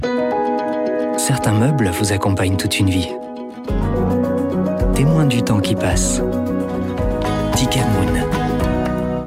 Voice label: FRE M com

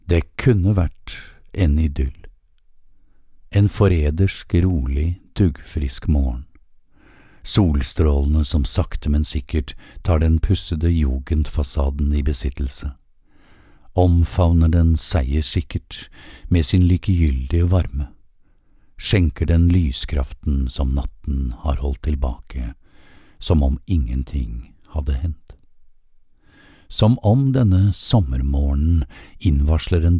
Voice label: NOR Audiobook F

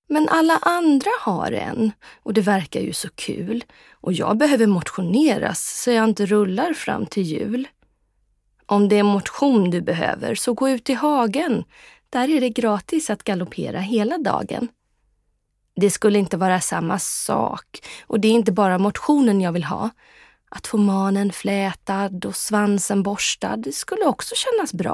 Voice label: F char saga

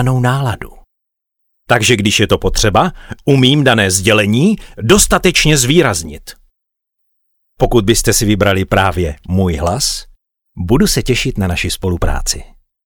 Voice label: CZE M info

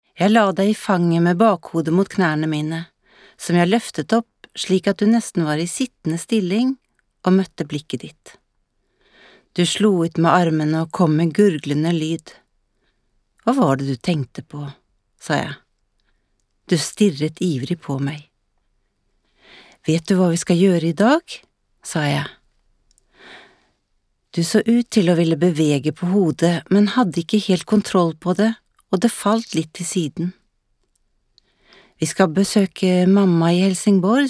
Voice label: NOR Audiobook F

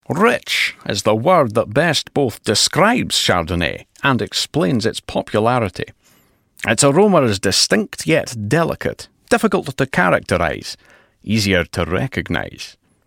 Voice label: eng uk m scottish

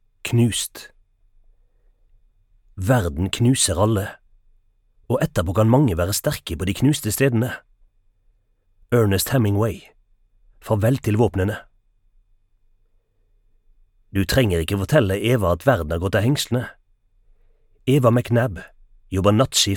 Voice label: NOR Audiobook M Bokmål

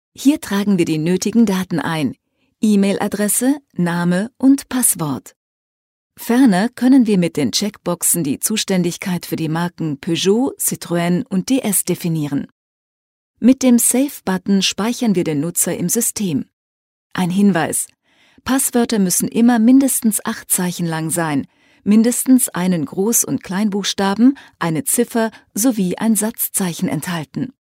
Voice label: ger f informative

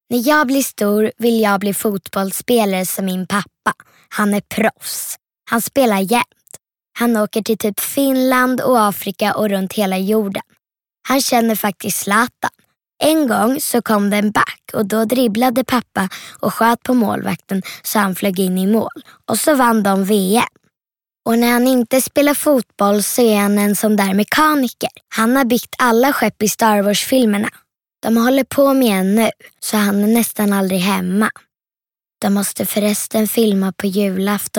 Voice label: se f berattande

